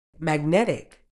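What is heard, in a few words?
"magnetic", British English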